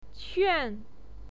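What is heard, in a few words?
"quan4", Mandarin Chinese pinyin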